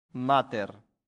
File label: "mater", Latin; santillana